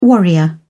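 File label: "warrior", British English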